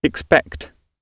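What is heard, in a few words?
"expect", British English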